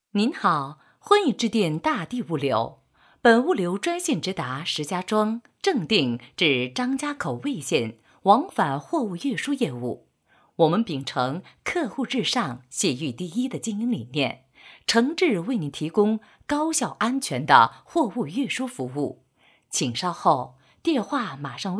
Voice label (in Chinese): 女, 彩铃, 物流; 大地物流